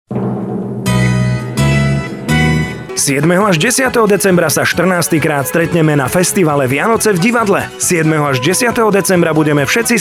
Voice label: radio; vianoce v divadle